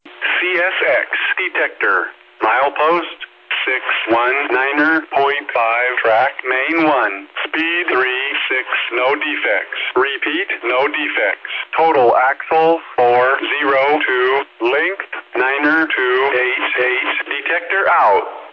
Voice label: defect detector; CSX Nahunta Sub MP 619.5